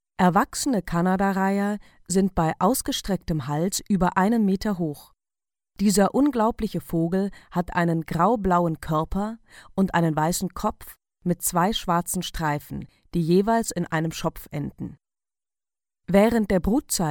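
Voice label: German, female; voice over talent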